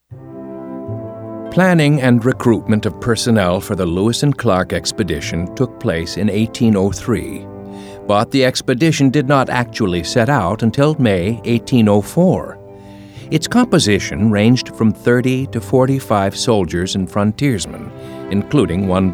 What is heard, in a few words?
narrative